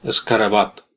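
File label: "beetle", Catalan; gcompris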